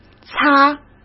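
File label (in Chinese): "擦", Mandarin Chinese; chinese-flashcards; hsk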